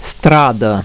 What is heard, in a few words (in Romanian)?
"strada", romanian; plaatsen